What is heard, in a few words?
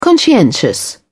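"conscientious", British English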